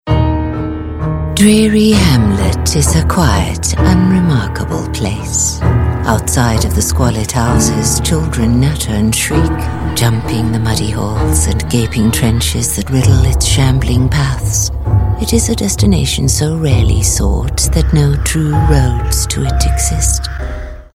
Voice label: english international video games